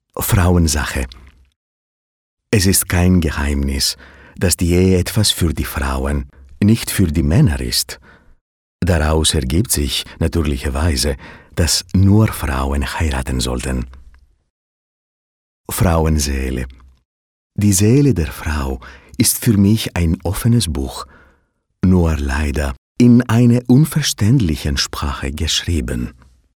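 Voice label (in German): Schauspiel akzent deutsch; Fremdtake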